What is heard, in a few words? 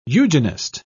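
"eugenist", British English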